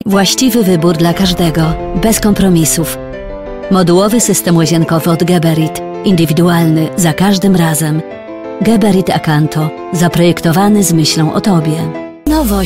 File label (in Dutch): Pools vrouw